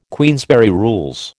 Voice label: "Queensberry Rules", American